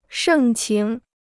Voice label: "盛情", Chinese

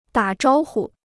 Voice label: "打招呼", Chinese

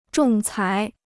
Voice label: "仲裁", Chinese

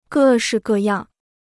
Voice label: "各式各样", Chinese

